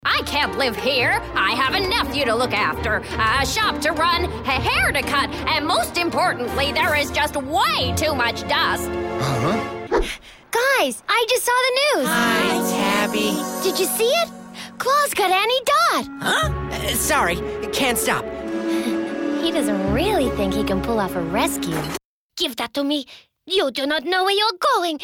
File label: accents characters